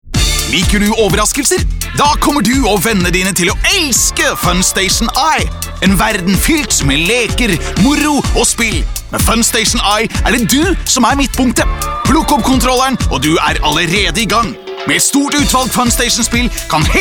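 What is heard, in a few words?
no com youthful, Norwegian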